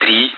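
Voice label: Speech, Russian, air traffic control; Digits; DCS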